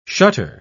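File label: "shutter", spoken word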